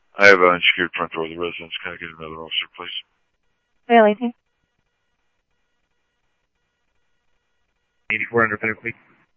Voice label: Police, scanner audio; SCTSDLE PD - PD2 ScottsdalePolice; ThompsonPeakHigh

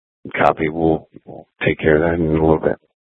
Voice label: Dispatch; Scottsdale

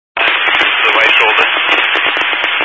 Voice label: Highway Patrol, scanner; DPS; Dist 06 Casa Grande

